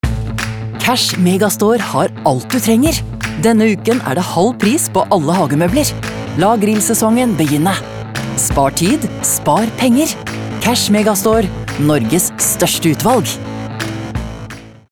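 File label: Norwegian hardsell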